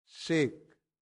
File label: "sic", Latin; santillana